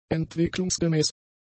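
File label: "entwicklungsgemäß", German; adjectives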